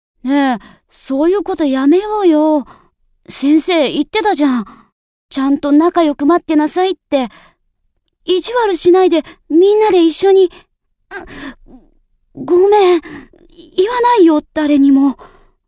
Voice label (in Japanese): 少年